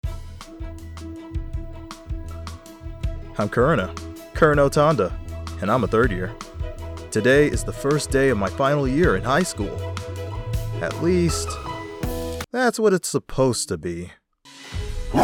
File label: Animation Comedy